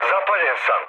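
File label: Serbian on fire mood low, speech; War Thunder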